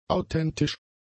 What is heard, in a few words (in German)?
"authentisch", German; adjectives